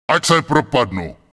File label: Night Elf Male Warrior NPC Pissed; wowpreklad; czwow